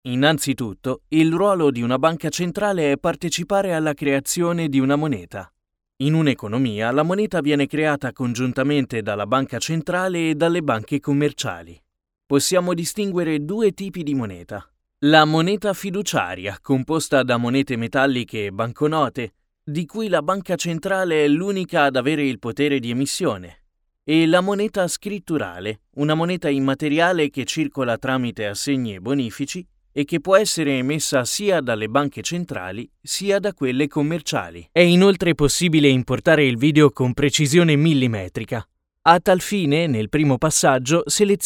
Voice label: elearning

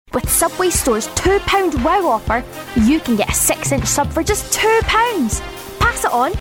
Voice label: Commercial; Subway

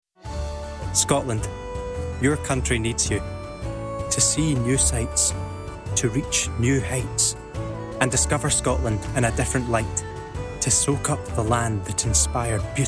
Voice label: Commercial; Visit Scotland

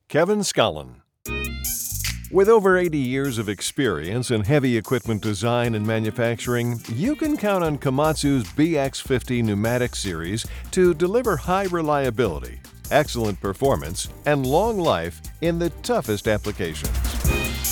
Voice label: Industrial Narration

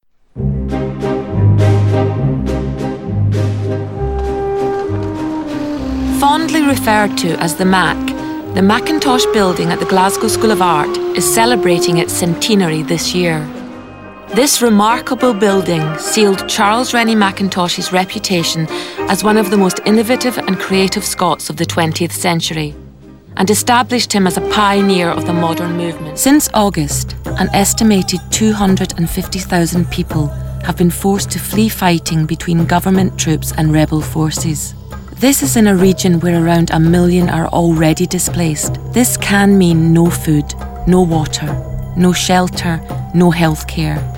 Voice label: Narration

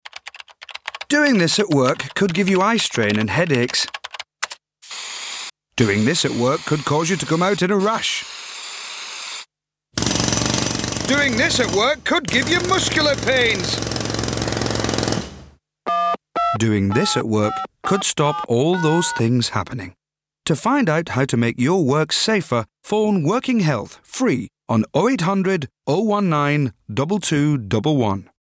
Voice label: Advert; HEBS

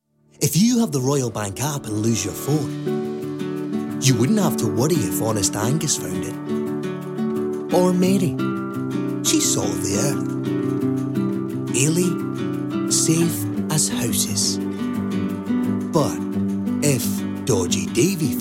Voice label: Commercial; RBS

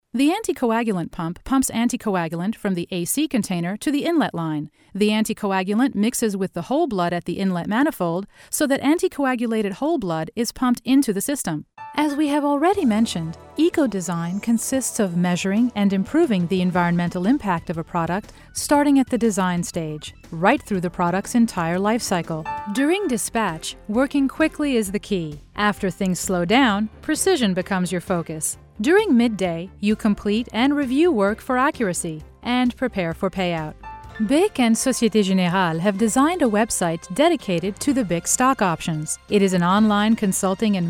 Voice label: english

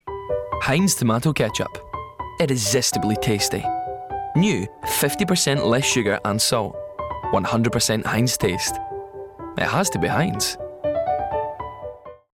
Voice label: Commercial; Heinz

